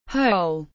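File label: "Hole", English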